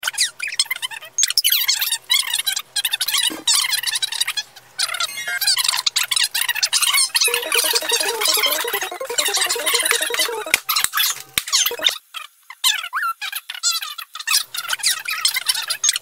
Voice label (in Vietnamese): tieng noi chuyen tua nhanh; tieng con nguoi